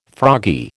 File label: "froggy", American